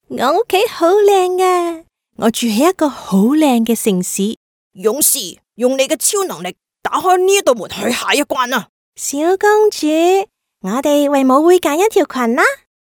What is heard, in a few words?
fem children